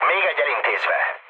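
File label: player killed enemy, mood low, Hungarian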